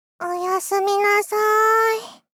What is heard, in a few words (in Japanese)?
おやすみなさ～い 幼女 高音域